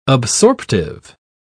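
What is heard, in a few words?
"absorptive", British English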